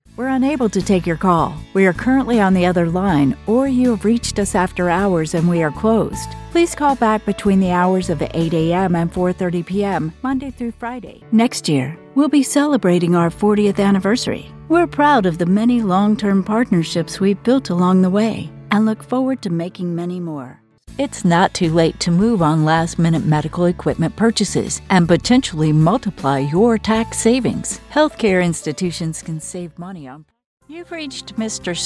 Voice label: on hold messages